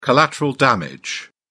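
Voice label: "collateral damage", British English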